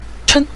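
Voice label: "chen", Mandarin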